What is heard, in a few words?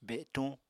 "betuñ", apatani